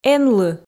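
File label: "энлы", Komi, female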